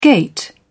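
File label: "gait", British English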